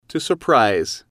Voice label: "to surprise", English

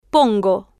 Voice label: "pongo", Spanish

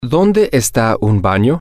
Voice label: where is a bathroom, spanish, M

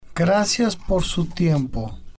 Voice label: "gracias Por Su Tiempo", spanish

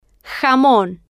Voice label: "jamon", Spanish

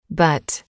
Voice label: "but", English

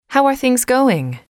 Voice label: "how are things going", English

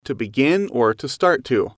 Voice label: "to begin start", English

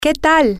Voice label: how are things, spanish, F

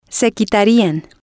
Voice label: "se quitarian", spanish

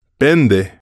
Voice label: "vende", Spanish

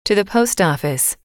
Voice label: "to the post office", English

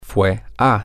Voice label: he went to, spanish, M

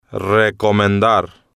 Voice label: "recomendar", spanish

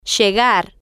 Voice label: "llegar", Spanish

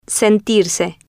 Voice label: "sentirse", Spanish

